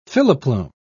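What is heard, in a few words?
"filoplume", British English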